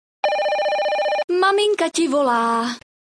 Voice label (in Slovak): zvonenie hlásky cz